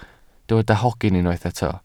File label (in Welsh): "hogyn" Welsh